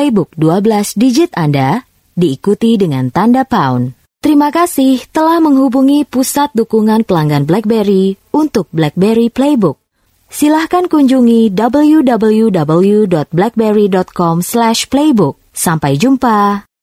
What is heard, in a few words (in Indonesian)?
Bahasa Indonesia; Blackberry Playbook